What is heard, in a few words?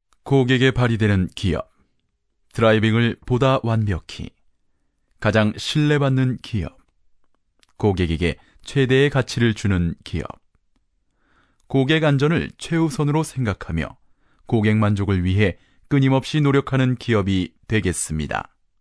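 Korean male voice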